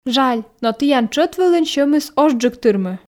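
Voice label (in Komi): жаль но тіян тшӧт вылын сьӧмыс озджык тырмы, Komi, female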